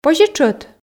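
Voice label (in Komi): позьӧ тшӧт, Komi, female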